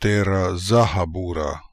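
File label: "Terasakhaboora", Russian, pronounciations; Fourth Way; Beelzebub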